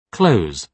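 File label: "close", British English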